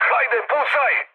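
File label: Serbian attack position mood med, speech; War Thunder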